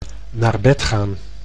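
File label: "naar bed gaan", Dutch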